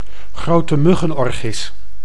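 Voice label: "grote muggenorchis", Dutch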